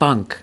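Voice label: "bunk", US English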